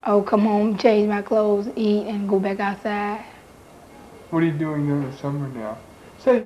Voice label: Conversation with 14 year old black female Washington DC; loc american english dialect recordings